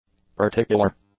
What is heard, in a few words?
"particular", English; adjectives